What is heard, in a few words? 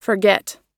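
"forget", English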